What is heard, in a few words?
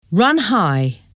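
"run high", English word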